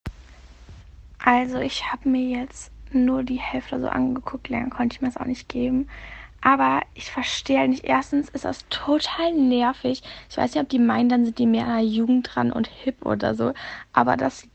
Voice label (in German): Kommentar